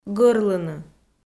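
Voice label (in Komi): "гӧрлыны", Komi, female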